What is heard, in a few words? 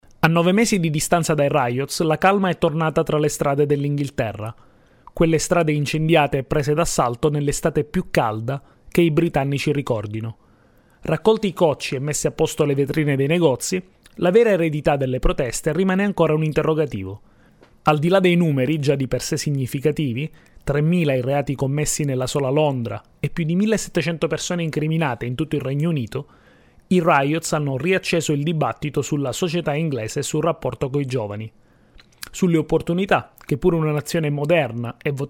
italian voiceover